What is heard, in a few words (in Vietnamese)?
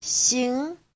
"xing", Hán ngữ; (động từ) được